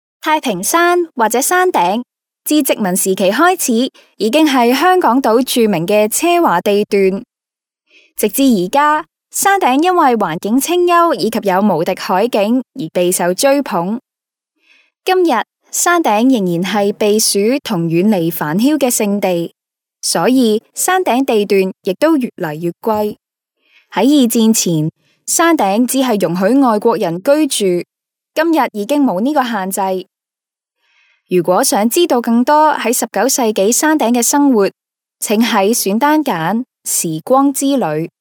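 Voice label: Cantonese female corporate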